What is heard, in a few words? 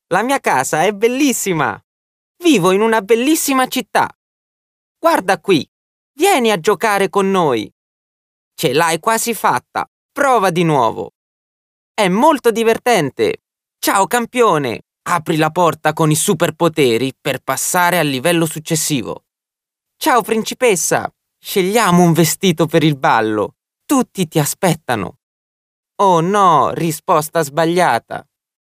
Male Italian Toys